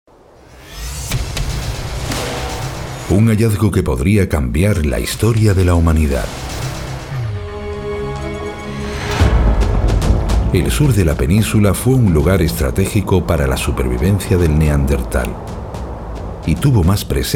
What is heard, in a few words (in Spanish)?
Masculino; Sonoarte